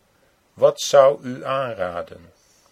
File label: "wat aanraden", Dutch